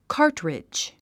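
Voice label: "cartridge", English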